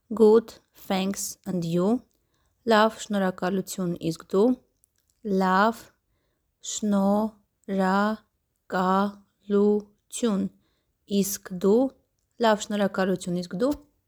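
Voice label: English "Good thanks and you", Armenian "Lav snorhakalutyun isk du"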